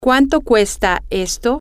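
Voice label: how much is this, Spanish, female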